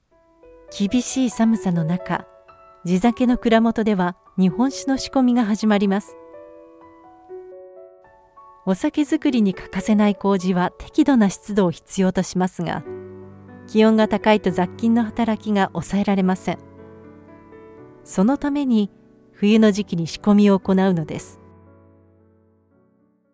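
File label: Japanese documentary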